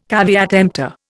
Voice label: "caveat emptor", Indian

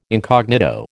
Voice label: "incognito", American

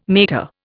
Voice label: "mater", Indian

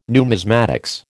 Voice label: "numismatics", American

